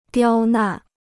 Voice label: "刁难", Chinese

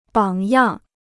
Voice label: "榜样", Chinese

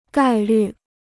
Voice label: "概率", Chinese

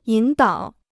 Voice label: "引导", Chinese

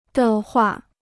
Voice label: "的话", Chinese

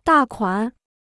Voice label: "大款", Chinese

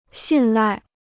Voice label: "信赖", Chinese

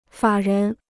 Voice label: "法人", Chinese

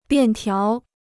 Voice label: "便条", Chinese

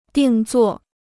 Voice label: "定做", Chinese

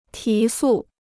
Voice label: "提速", Chinese

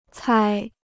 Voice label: "猜", Chinese

